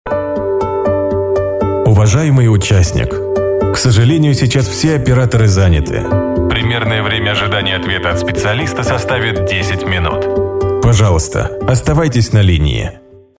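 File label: Russian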